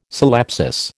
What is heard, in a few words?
"syllepsis", American